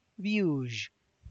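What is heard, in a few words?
tlacochahuaya, Zapotec; small pork skins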